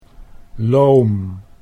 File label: "loom", Dutch